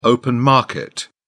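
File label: "open market", British English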